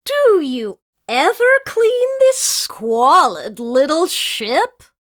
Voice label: Remind Taunt; Warframe; Corpus Lich; Persona D

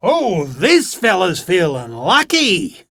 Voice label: Enemy Spotted Chipper; Dialog; Barks; Battle; Warframe

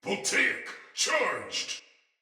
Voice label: Attack, Barks Battle Soldier; Sinecure; Ode Tech

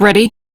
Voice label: Crew Greet, Steel Meridian Female; Dialog; en; Warframe; RailjackCrew

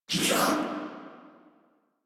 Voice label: Surprised Sniper, en

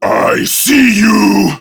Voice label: Enemy Spotted Prime Grineer Male

